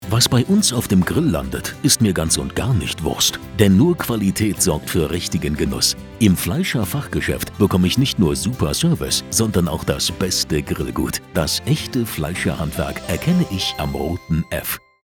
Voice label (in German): Radiospot Grillen; fleischerhandwerk